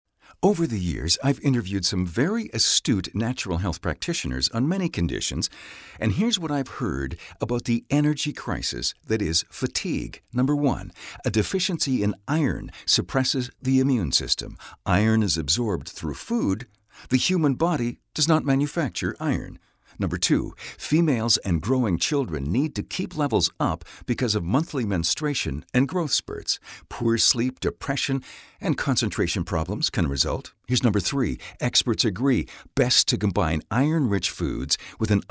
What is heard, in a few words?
RADIO Editorials Commercial; Floradix